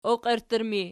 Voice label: "oqierttur", Archi

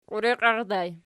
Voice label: "qiureqiaqii", Archi

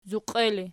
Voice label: "zuqie", Archi

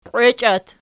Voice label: "qwechiat", Archi

